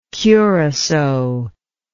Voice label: "curassow", english